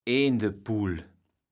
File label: "Aendepuhl", platt